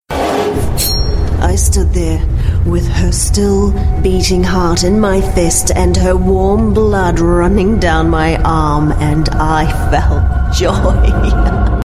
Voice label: NZ English Priestess, English NZ